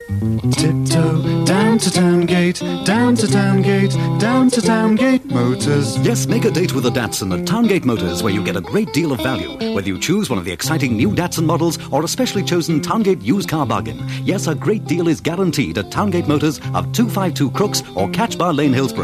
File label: Radio Advert; Radio Hallam; Towngate Motors